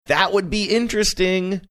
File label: saying that would be interesting sing songy